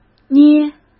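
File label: "捏", Mandarin Chinese; chinese-flashcards; hsk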